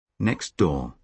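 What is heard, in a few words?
"next door", British English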